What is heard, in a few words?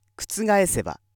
male